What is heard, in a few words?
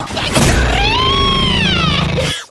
wry female; april